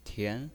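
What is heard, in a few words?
"tian", pinyin voice, second tone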